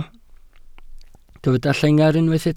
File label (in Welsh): "llengar" Welsh